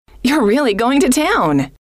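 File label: you Re Really Going To Town, female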